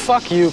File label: "fuck you", male; expletives